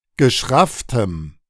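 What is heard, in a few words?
"geschrafftem", German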